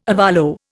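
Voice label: "ovolo", Indian English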